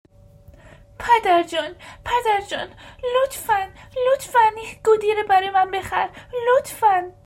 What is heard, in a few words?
Dari, Characters